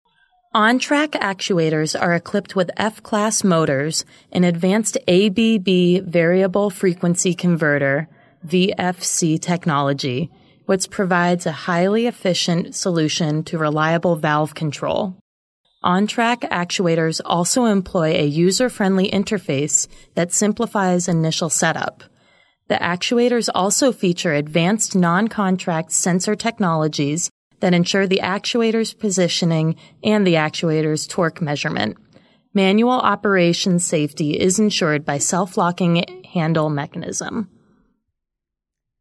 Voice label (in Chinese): 美式女声